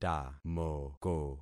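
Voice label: "tamoko", concatenated syllables; Affixing experiment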